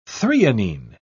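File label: "threonine", British English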